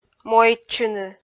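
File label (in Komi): "мойдчыны", Komi, female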